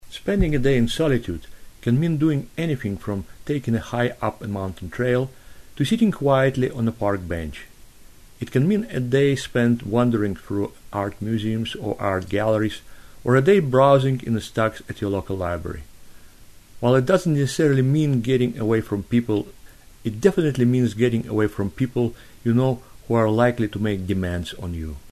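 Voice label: Russian English male; accent of russia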